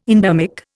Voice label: "endermic", Indian

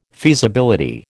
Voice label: "feasibility", American

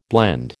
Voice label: "blende", American

